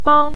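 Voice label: "bang" Mandarin Chinese, neutral tone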